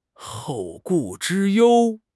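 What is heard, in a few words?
"后顾之忧", Mandarin Chinese; HSK6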